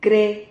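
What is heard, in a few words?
"cre", Spanish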